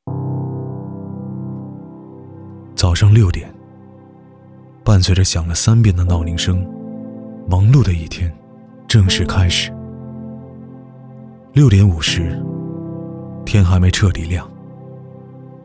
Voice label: 走心企业宣传

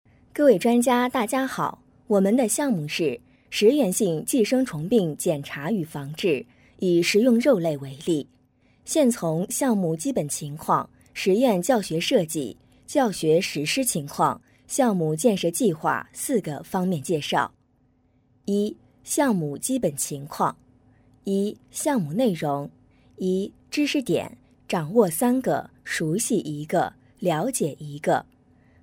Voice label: 专题课件等讲解

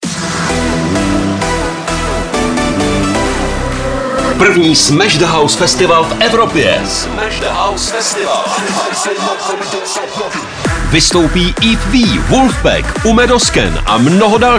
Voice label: 捷克语男

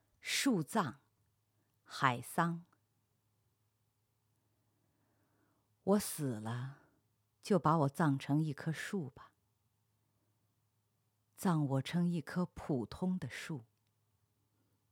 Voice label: 朗诵; 树葬

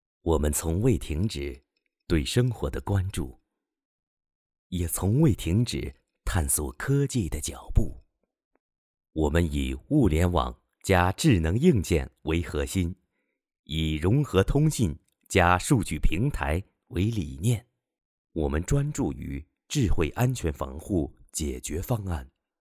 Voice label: 品牌宣传广告 试音

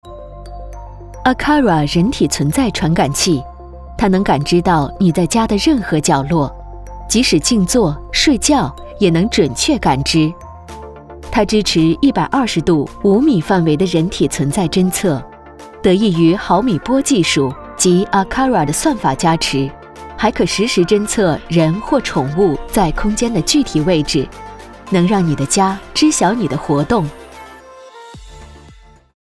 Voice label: 广告沉稳优雅; 人体存在传感器

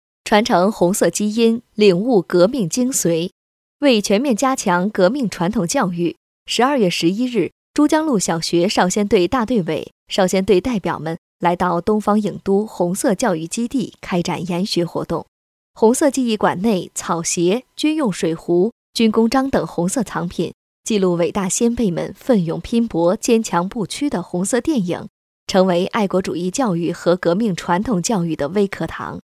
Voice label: 女新闻

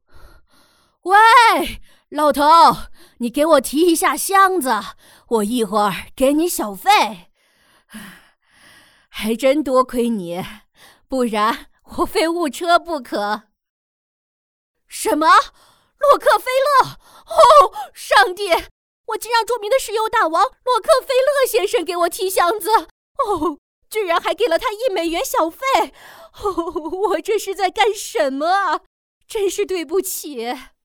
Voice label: 翻译腔中年妇女

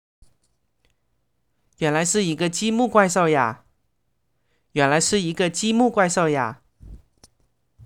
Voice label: 采访录音

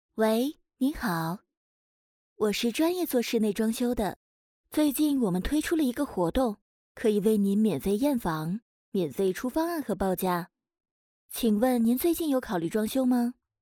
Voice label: 电话机器人

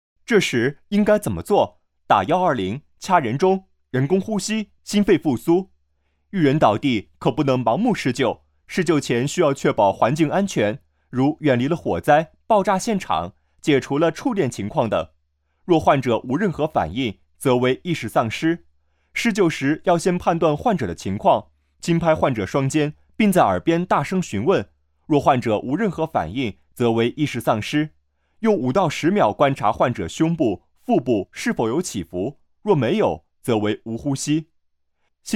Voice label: 形象年轻男声

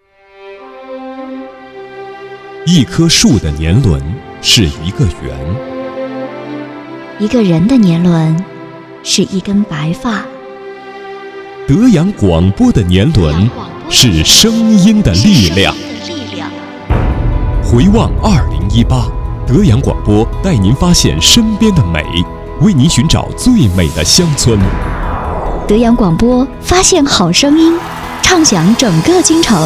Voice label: 跨年宣传片

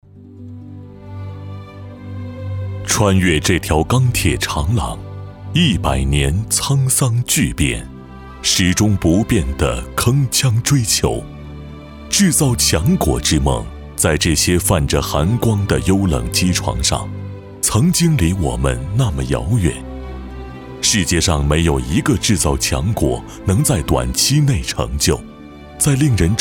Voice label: 纪录片抒情记录

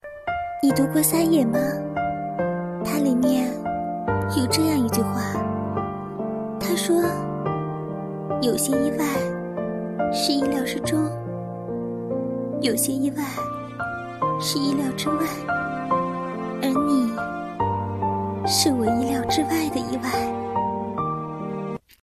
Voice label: 情感念白

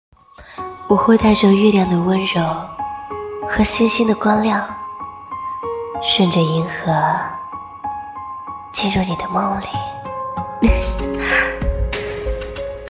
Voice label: 哄你入睡

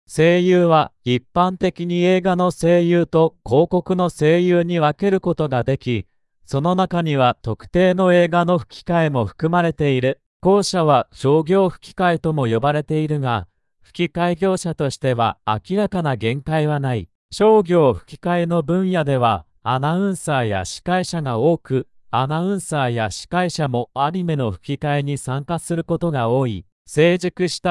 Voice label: 样本日语

